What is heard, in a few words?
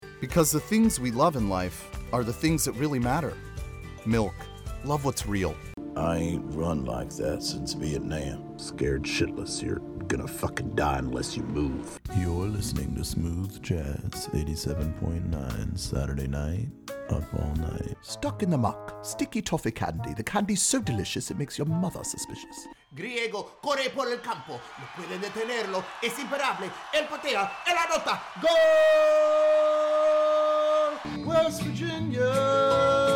VO Reel